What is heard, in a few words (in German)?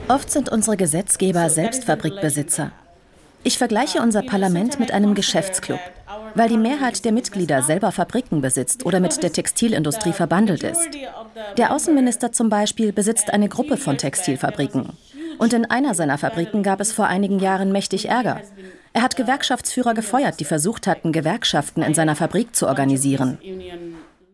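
Voiceover, klar seriös; WDR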